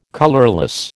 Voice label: "colourless", American

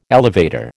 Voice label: "elevator", American

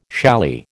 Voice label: "challis", American

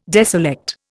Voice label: "deselect", Indian

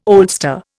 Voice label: "oldster", Indian

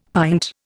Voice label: "pint", Indian; Dictionary

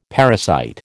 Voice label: "parasite", American

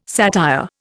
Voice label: "satire", Indian

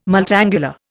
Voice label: "multangular", Indian

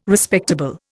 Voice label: "Respectable", Indian

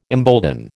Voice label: "embolden", American

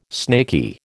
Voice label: "snaky", American

